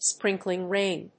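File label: "sprinkling rain", English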